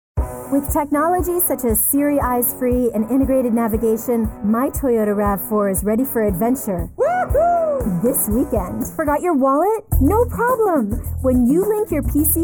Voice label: Commercials